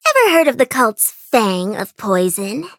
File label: eng menu tap; white peach; Cookie Run